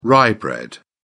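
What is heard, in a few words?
"rye bread", British English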